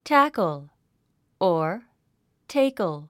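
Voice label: "tackle", English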